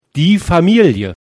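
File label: "Familie", German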